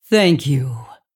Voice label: Female Dragon Thanks; Good Fight

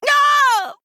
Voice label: Female Kul Tiran Death

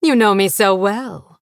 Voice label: Female Blood Elf MIRROR GREETINGS

